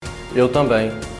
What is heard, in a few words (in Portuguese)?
comercial; ensino medio integrado